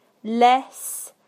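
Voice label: "less", British English; PictureDictionary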